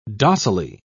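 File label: "docilely", British English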